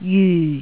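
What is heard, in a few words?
"jyu4", Cantonese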